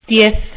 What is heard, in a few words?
"diez", spanisch